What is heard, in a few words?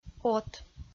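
"от", Komi-Zyrian, female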